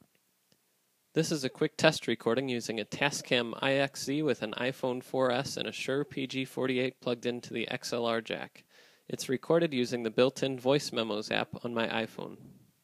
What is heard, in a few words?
voice memos; tascam ixz; iphone